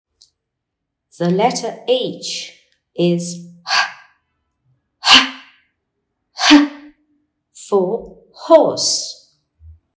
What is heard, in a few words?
h sound